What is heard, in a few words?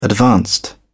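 "advanced", British English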